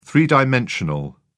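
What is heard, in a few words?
"three-dimensional", British English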